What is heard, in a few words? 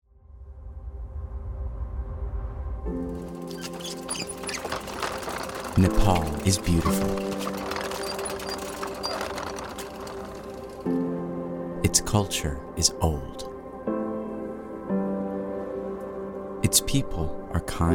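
VO English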